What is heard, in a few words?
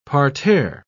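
"parterre", British English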